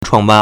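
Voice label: "chuàngbàn", Chinese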